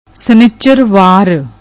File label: "snichrwar", Punjabi; ਸਨਿੱਚਰਵਾਰ